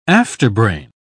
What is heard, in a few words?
"afterbrain", uk